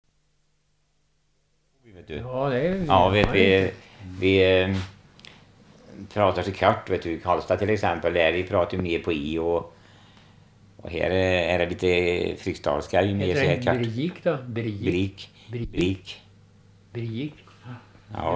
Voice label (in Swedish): Lysvik, dialekter; Svenska sagor; Folkminnen